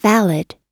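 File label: "valid", English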